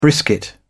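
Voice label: "brisket", British English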